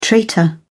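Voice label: "traitor", British English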